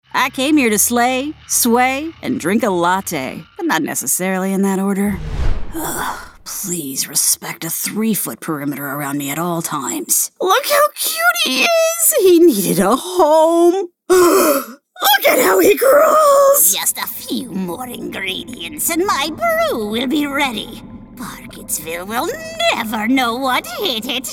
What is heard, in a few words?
Animation Non Binary English